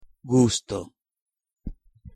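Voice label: "gusto", pronunciation, Italian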